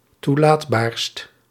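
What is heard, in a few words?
"toelaatbaarst", Dutch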